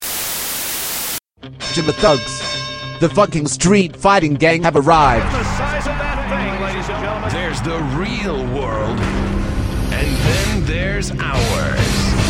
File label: Commercial